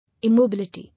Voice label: "Immobility", English

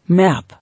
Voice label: "Map", English

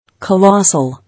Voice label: "Colossal", English

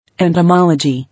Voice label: "Entomology", English

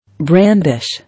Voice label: "Brandish", English

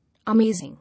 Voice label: "Amazing", English

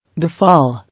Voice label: "Befall", English

